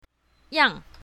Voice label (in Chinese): "yang4", pinyin south